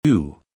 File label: Phoneme Male; Commonscript; Lynguistykon